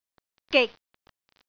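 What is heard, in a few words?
"gik6", Cantonese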